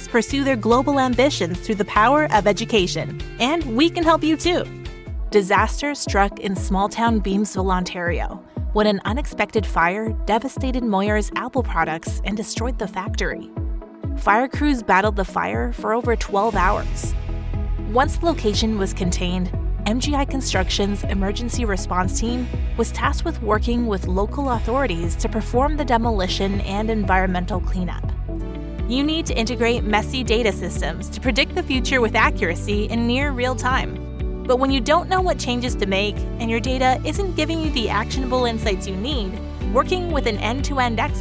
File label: english canadian corporate videos